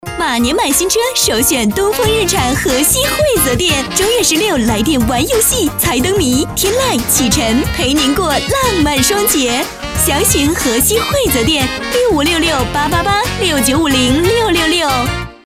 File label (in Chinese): 女国 广告 激情欢快 汽车广告